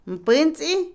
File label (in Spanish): Otomí, mujer; dar vuelta, voltear; Movimiento; INALI